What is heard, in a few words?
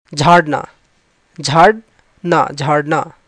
Learn Hindi Verbs To dust in Hindi